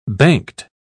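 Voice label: "banked", British English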